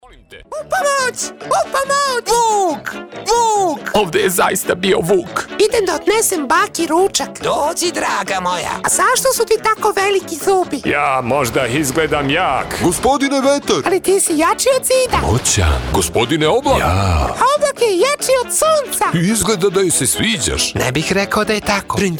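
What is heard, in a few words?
Serbian, male; serbian voice over talent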